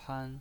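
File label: "pan" first tone, pinyin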